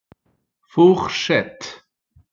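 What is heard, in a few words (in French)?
"fourchette", French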